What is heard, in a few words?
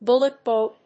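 "Bullet Boy", English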